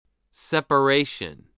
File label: "separation", english spelling